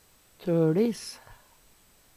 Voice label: "tOLIS", Numedalsmål; Særnamn (personnamn); Tordis

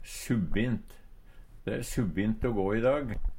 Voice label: subbint, Numedalsmål; vanskeleg å gå, t.d mykje sno; Adverb

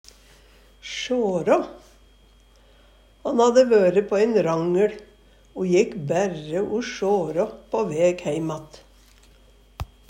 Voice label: "sjara", Numedalsmål; Eksempel på bruk Han hadde vøre på ein rangL o jekk bærre o sjårå på veg heim att.

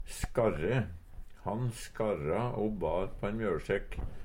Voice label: "skarre", Numedalsmål; Verb; Uttrykk; Han skarra o bar på ein mjølsekk.

